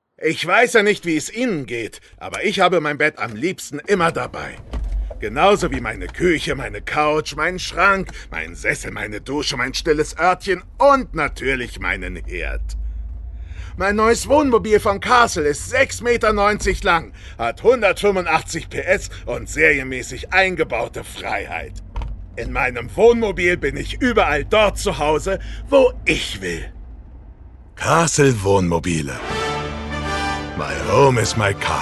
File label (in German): Caravan Werbung